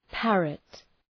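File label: "parrot", English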